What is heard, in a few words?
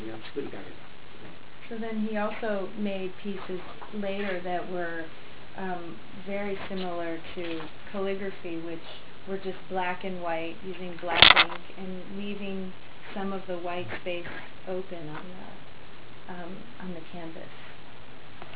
calligraphy lecture; Asia; Japan; Calligrapher